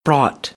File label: "brought", American English; ɑː - ɔː